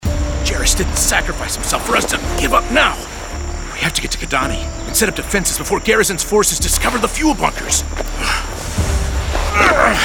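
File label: animation men interactive, Voice Over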